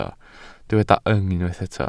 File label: "yng", Welsh